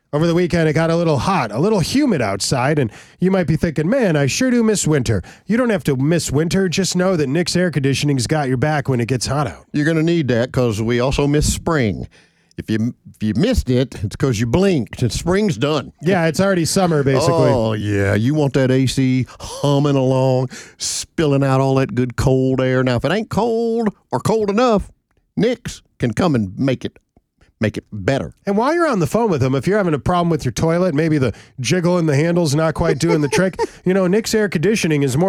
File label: RADIO AD; nicks plumbing radio ads